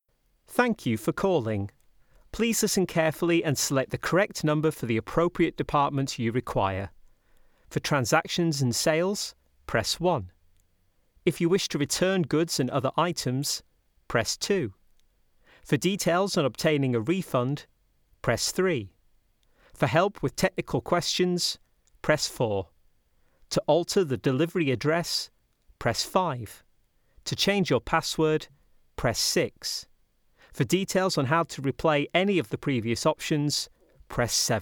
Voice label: IVR